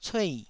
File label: "cuei4", Taiwanese syllable